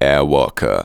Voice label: "AIRWALKER", SPOKEN; Techno Trance Essentials